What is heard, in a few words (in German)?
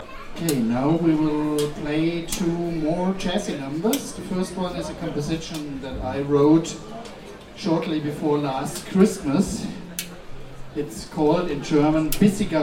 Ansage